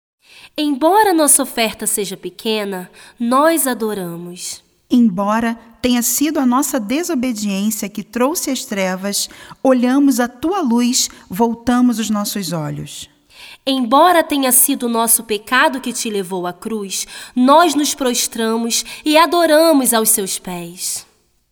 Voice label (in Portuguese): Narracao